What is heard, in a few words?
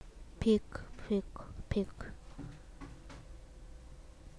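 "pick", spoken word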